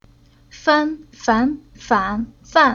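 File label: "Fan", Chinese syllable; Уроки фонетического блока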